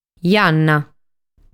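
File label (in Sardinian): "Janna", Sardinian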